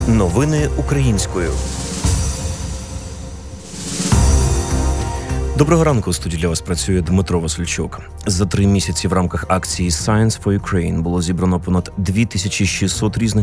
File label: Ukrainian News